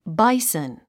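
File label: "bison", English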